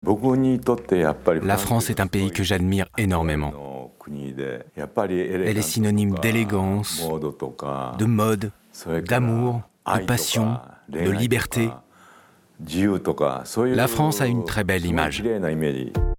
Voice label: Voice Over; KENZO; Canal

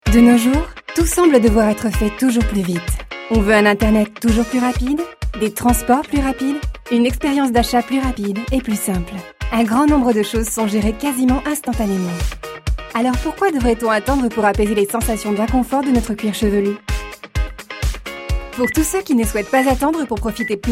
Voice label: corporate; HEAD SHOULDERS

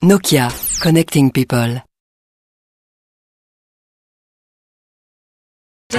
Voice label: packshot tv; Nokia

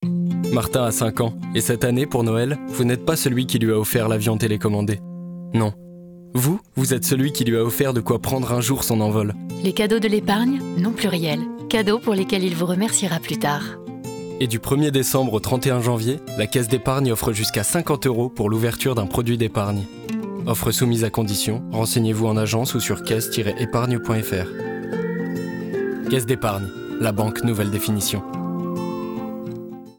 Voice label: RADIO; CAISSE EPARGNE

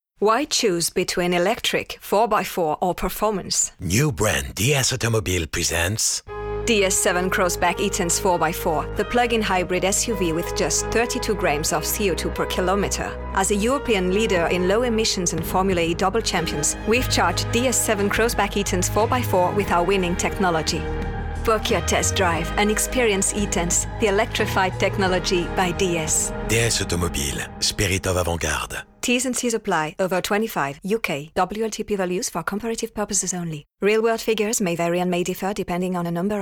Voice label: English

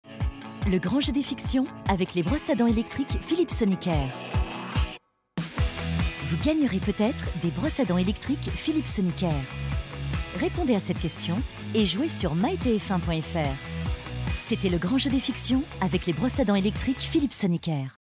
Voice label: billboard; philips; grand jeu; sonicare

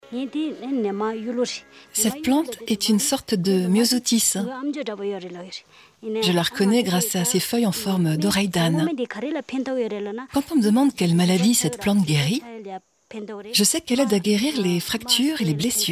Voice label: voice over

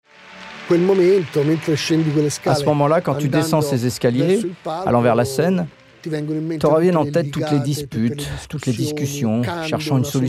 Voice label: FR VOICE OVER; Nanni Moretti

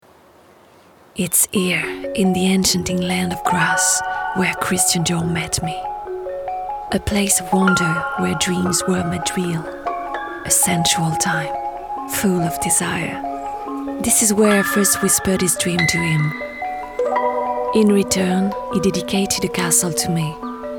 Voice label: Instit EN; Dior

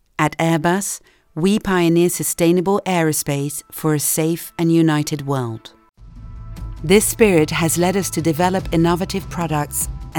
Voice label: ENG Intro Manifeste; Airbus

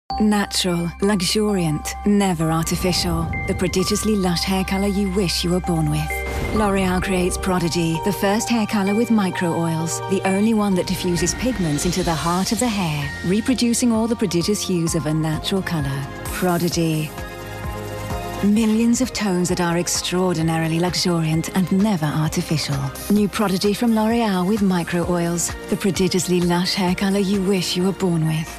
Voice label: Commercial; L'Oréal Paris Prodigy Hair Color

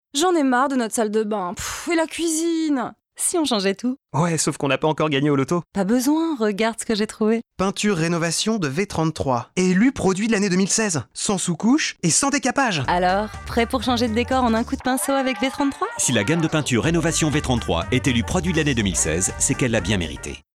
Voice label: Radio; V33

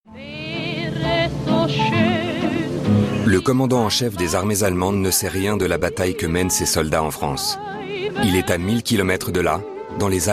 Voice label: Medley Narration docu

